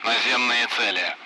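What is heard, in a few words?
Speech Sound Russian Common Allied Flight; ground targets; DCS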